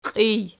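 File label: "qiij" nominative, Archi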